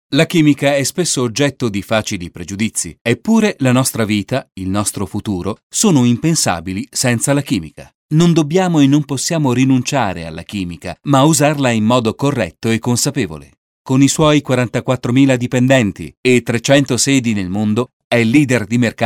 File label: narration italian